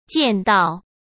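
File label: "见到", Mandarin Chinese; hsk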